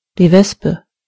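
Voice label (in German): "wasp", German; gcompris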